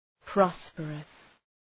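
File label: "prosperous", English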